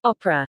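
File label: "opera", English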